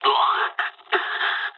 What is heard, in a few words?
jp damaged pilot, speech jp